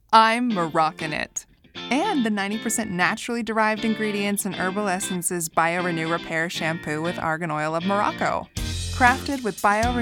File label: vo commercial; demo